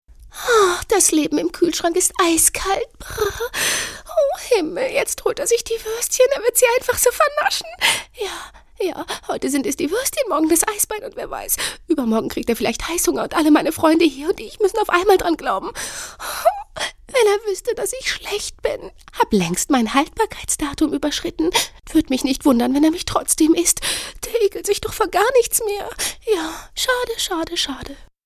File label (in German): trickstimme